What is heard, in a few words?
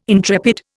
"intrepid", Indian